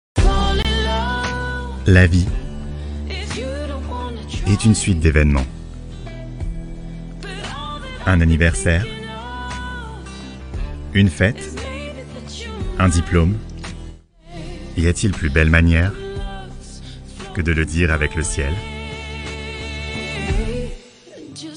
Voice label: 法语男, 外语, 小语种

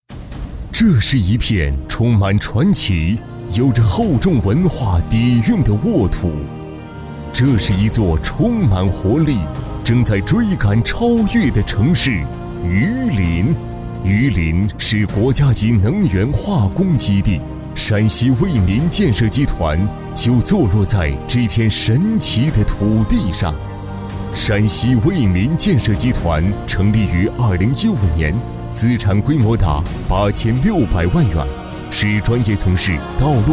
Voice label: 专题 汇报 公司汇报宣传 大气, 男

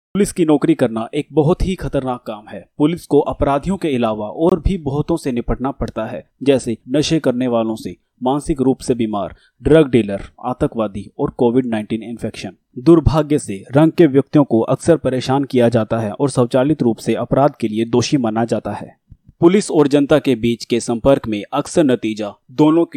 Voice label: 印地语男 外语 小语种 样音

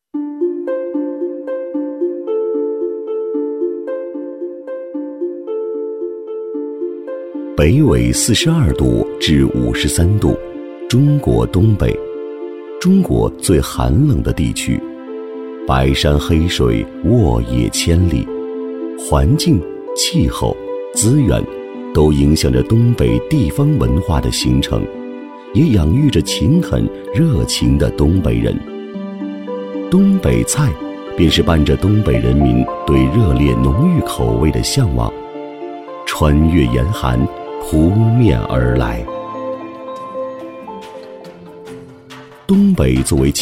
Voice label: 纪录片 舌尖 锅包肉, 男

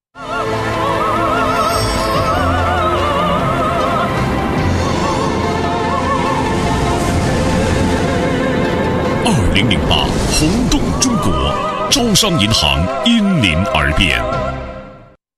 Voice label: 广告 银行 力度; 招商银行

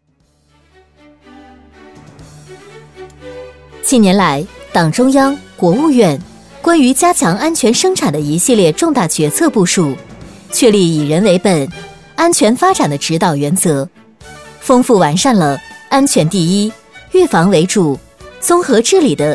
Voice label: 专题 新闻 安全生产 正式新闻

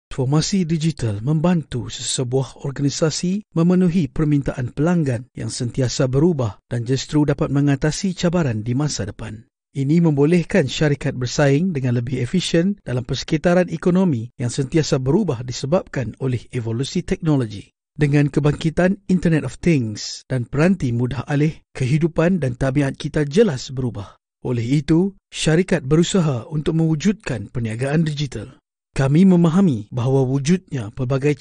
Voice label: 马来西亚语男, 外语, 小语种

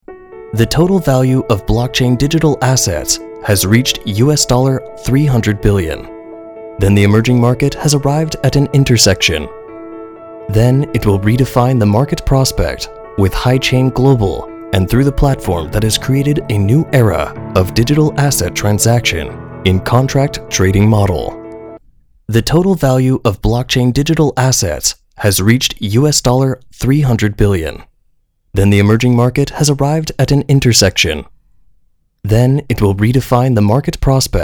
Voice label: 美式英语 画外音, 外籍男英; 外语

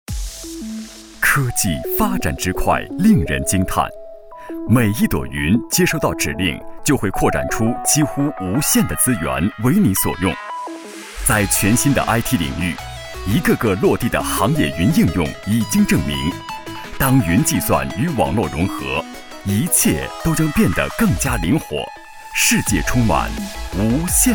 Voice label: 专题 企业 科技, 男国; 百得科技

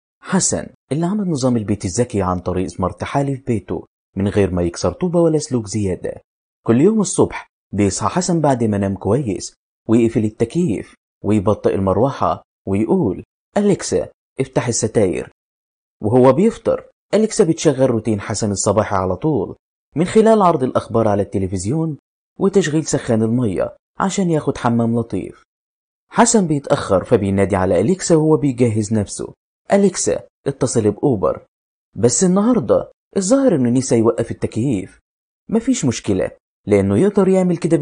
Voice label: 阿拉伯语男 外语 小语种

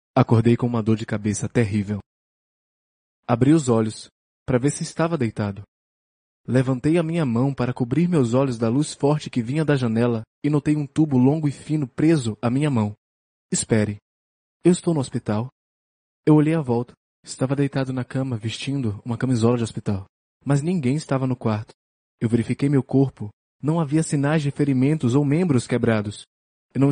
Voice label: 葡萄牙语男 外语 小语种

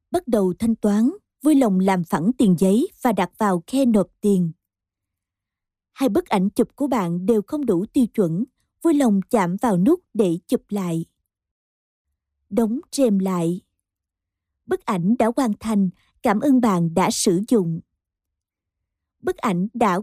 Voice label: 越南语女 外语 小语种

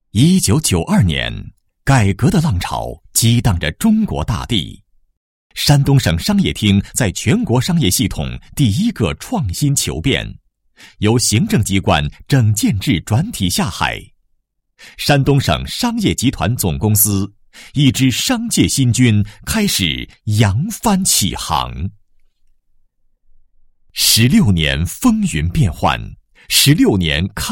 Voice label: 男 宣传片 成熟; 鲁商集团

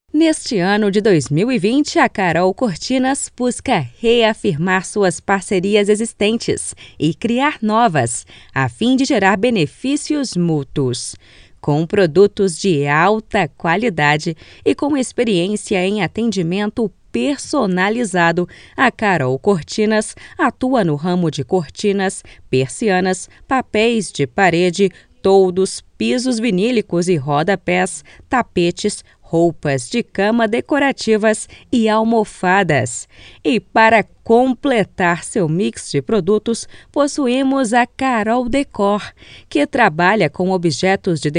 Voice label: 葡萄牙语女 外语 自然

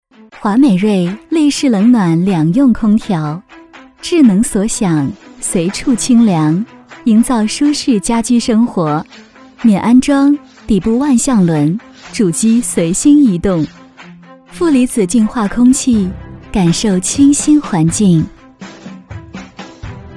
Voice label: 女 广告 品牌形象 冷暖空调 大气; 华美瑞冷暖空调

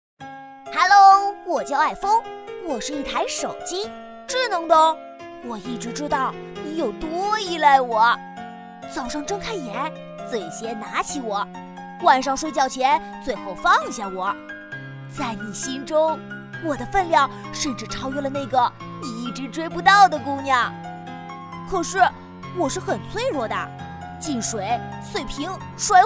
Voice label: 童声; 手机讲述

